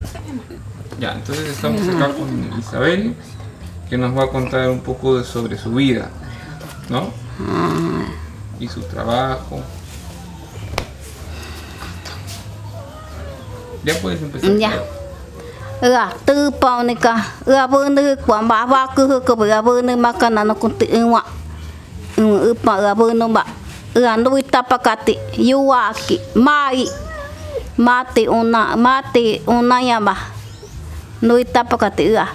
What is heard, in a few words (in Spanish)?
Narración; Juventud